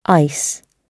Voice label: "ice", English